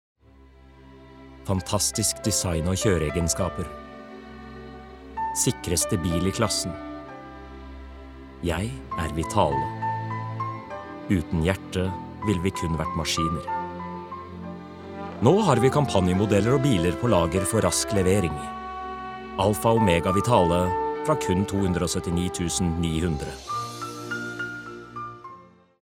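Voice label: Norwegian soft sell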